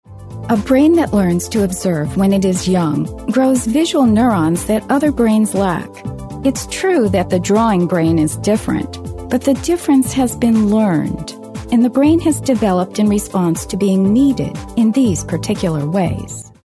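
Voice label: English, US, female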